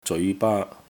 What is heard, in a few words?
"zeoi2 baa1", Cantonese